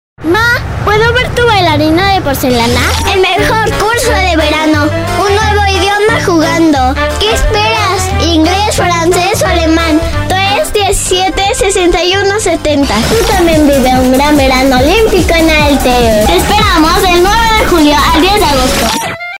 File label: girl voice locutora mejicana